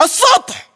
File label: location rooftop, localized english battlechatter